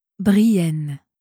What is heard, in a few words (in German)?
"brienne", franzoesische maedchennamen